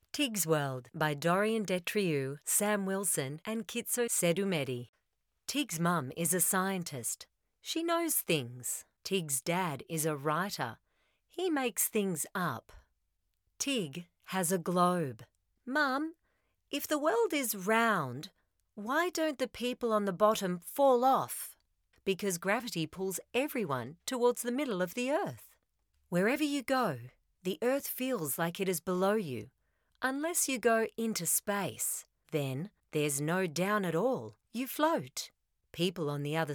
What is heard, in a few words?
AudioBook